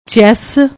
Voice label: "chess", Punjabi; ਚੈੱਸ

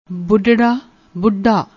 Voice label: "budrra", Punjabi

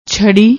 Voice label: "chharri", Punjabi; ਛੜੀ

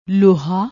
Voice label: "lohaa", Punjabi; ਲੋਹਾ

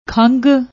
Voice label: "khang", Punjabi; ਖੰਘ

